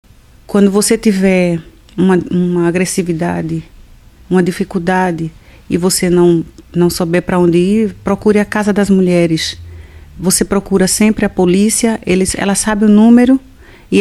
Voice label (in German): Portugisisch Hilfe